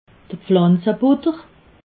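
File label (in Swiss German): "pflanze butter", Alsatian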